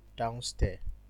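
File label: "downstairs" Nigerian Pidgin, male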